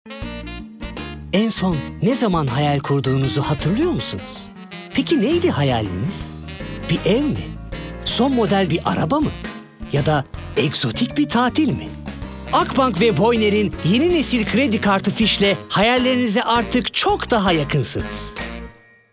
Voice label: duygusal motive

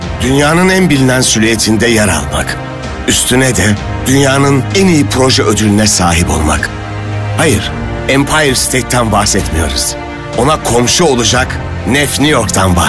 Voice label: otoriter, seslendirme

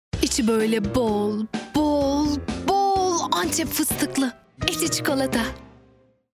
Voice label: enerjik esprili; seslendirme

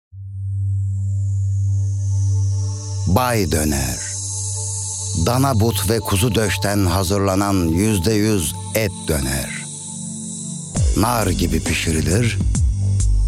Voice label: sicak samimi

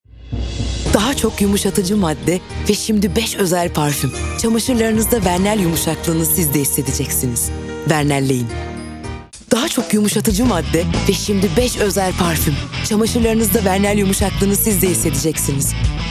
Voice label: sicak tempolu neseli, seslendirme

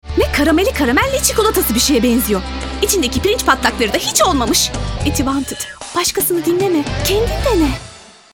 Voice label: enerjik esprili, seslendirme

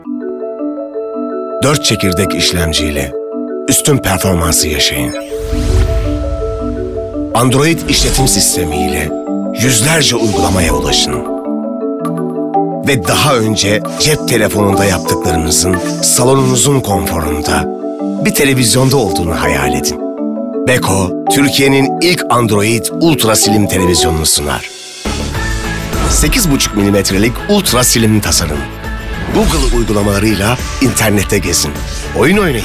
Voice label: sicak samimi, seslendirme

